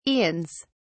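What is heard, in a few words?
"aeons", British English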